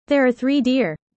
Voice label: user fast; Animal friends